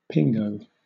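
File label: "pingo", British English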